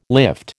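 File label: "lift", American English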